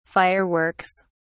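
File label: "fire works", British English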